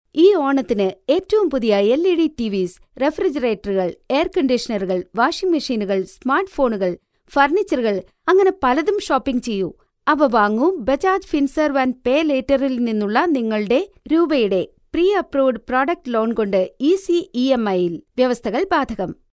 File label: female malayalam voice over sales